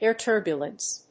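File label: "air-turbulence", English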